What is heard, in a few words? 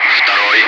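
Russian calm; Wingman; DCS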